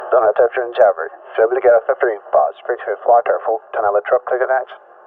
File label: Flight Instruction; day to day use sound effects